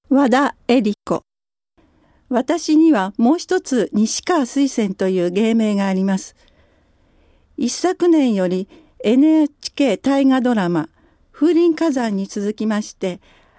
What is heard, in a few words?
free talk, female voice